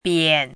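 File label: "bian" third tone, pinyin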